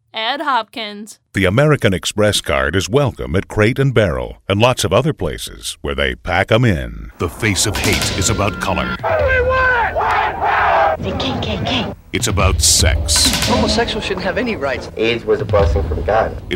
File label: Commercial